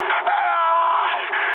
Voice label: damaged pilot, speech ru